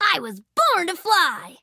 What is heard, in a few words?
level up, en; cookie run